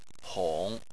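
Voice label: "hong" third tone, Chinese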